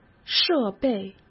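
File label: "设备", Mandarin Chinese; chinese-flashcards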